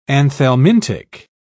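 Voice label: "anthelmintic", British English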